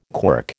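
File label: "quirk", American English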